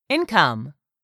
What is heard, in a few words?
"income", English; 3000 words